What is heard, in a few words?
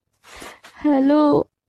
hello hello girl voice message tone